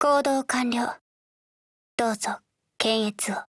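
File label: OPERATION OVER, Japanese; MG4Mod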